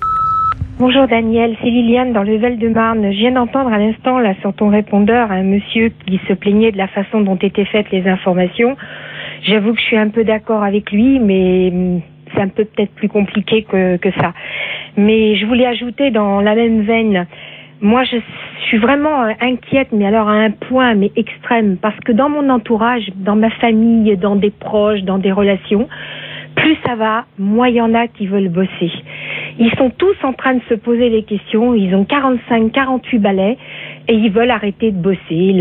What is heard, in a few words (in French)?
Danger travail repondeur